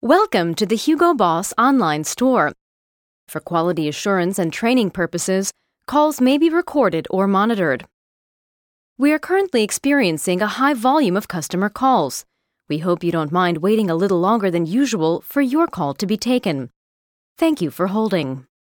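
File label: US telephone, englisch; telefon; sprecher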